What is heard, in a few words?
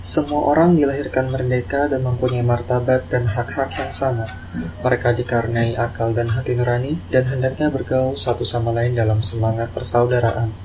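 Indonesian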